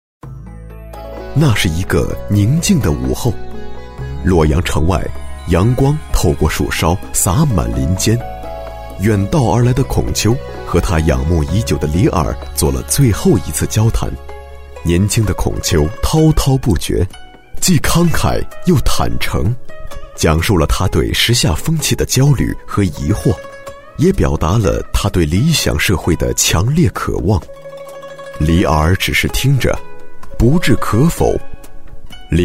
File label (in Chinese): 男 历史记录片